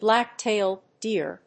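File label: "black-tailed deer", English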